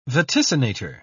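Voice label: "vaticinator", British English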